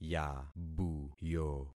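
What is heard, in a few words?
"yabuyo", concatenated syllables; Affixing experiment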